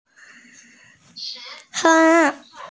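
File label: "heng2", Mandarin Chinese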